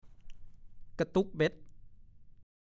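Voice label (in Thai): "กะตุ๊กเบ๊ด" ภาษาโคราช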